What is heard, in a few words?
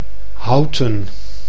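"Houten", Dutch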